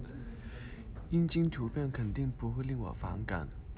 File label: apple male